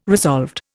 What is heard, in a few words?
"resolved", Indian